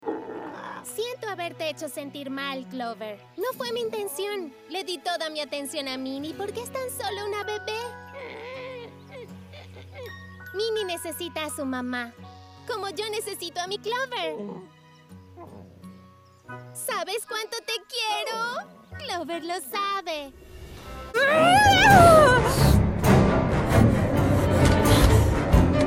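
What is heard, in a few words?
doblaje, Venezuelan Spanish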